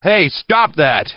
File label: scared; sven; construction